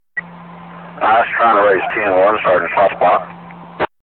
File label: Voices Police Chatter; this is the police